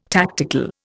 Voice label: "tactical", Indian

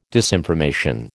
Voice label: "disinformation", American

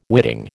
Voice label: "witting", American

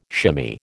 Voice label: "shimmy", American

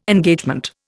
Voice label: "Engagement", Indian

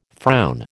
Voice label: "frown", American

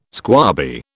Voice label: "squabby", British

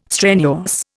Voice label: "strenuous", Indian

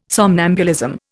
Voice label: "somnambulism", Indian

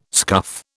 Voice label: "scuff", British

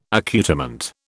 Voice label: "accoutrement", British

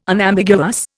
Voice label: "Unambiguous", Indian

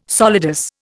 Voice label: "solidus", Indian

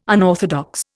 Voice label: "unorthodox", Indian

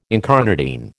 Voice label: "incarnadine", American

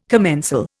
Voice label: "commensal", Indian

